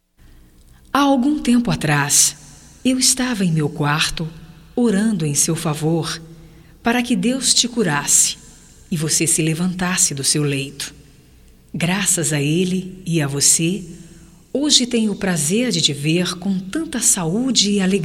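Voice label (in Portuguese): RESTABELECIMENTO, female, Telemensagens, Só Voz; Telemensagens 2017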